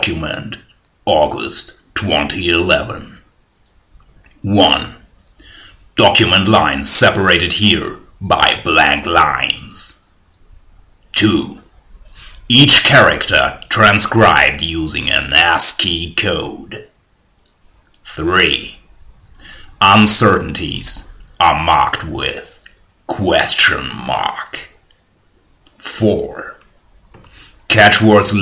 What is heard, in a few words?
Dramatic Reading